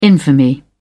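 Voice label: "infamy", British English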